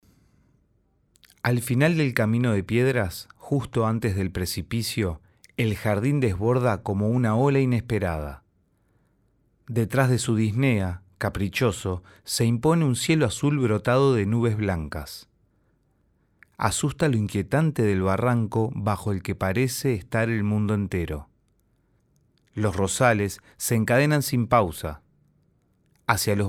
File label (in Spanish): Locucion